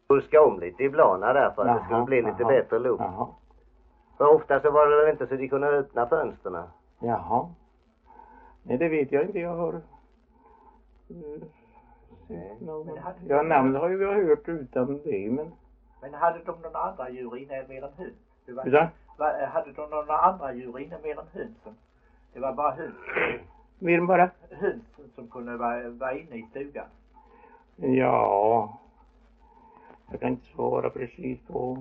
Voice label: Idala, dialekter; HALL

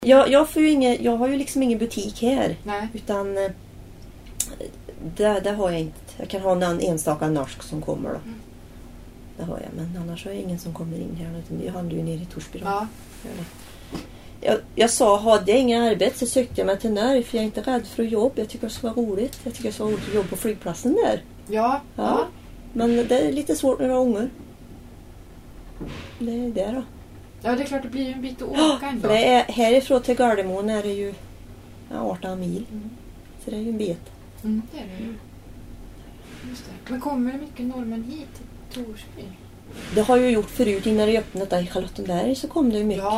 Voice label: dialekter

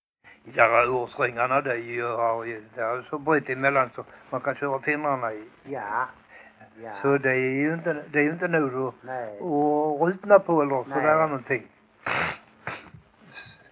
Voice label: Gödelöv, dialekter; Folkminnen

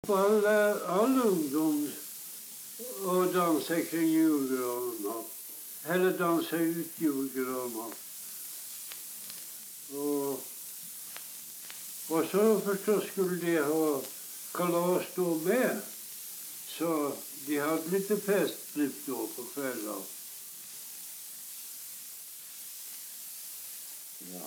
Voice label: dialekter; Folkminnen

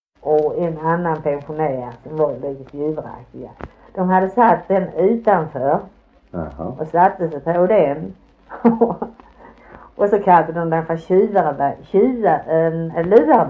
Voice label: Harplinge, dialekter; svenska sagor; Folkminnen

